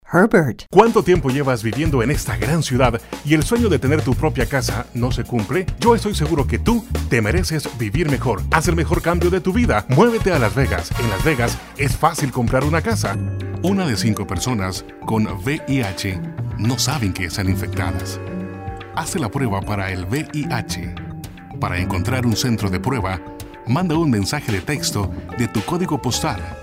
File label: Spanish